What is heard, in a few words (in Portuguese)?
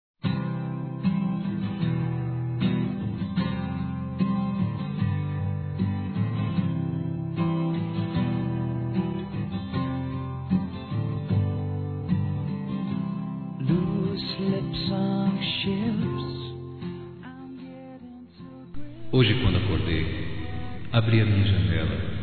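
Aniversário Romantico Masculino; Aniversários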